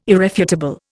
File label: "irrefutable", Indian